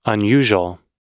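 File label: "unusual" US English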